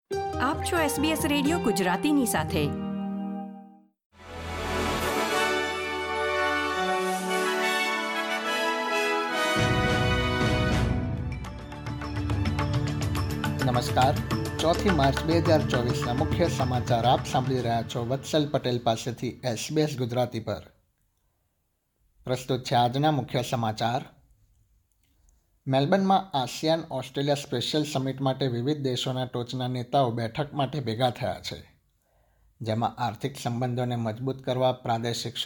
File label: gujarati newsflash; SBS Gujarati News Bulletin 4 March 2024